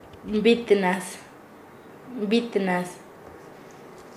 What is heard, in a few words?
"el que hace", Nasa Yuwe; paez